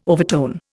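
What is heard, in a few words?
"overtone", Indian English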